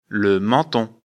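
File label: "menton", French; afrikalan; lecture fr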